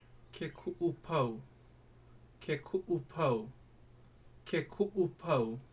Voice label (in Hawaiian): Ke Kuupau, hawaiian pronunciation; hawaiian pronunciation guide